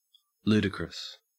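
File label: "ludicrous", Australian English; pronunciations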